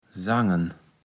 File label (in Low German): "sangen", Platt